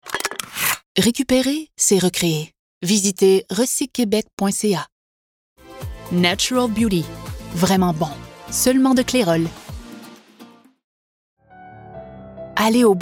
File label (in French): ANNONCEUR